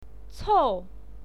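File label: "cou", Mandarin Chinese, fourth tone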